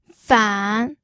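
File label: "fan", pinyin, third tone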